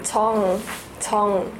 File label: "jeong" female